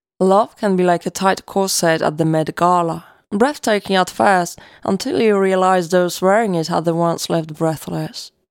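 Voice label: Polish, Accented English; GoLocalise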